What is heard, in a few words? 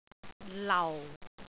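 "lau4", Cantonese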